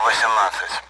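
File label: Speech Sound Russian Common AWACS Numbers; DCS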